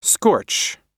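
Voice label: "scorch", American English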